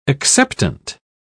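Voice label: "acceptant", English, British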